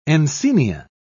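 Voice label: "encaenia", British English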